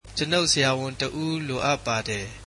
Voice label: I need a doctor, burmese